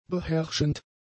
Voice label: "beherrschend", German; adjectives